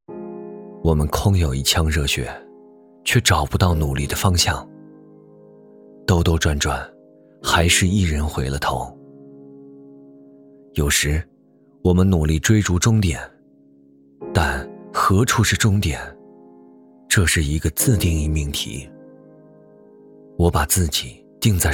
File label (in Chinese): 男 微电影 年轻 磁性 抒情 画外音 青年